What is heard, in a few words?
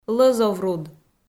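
"лӧзовруд" Komi, female; kpv audio dict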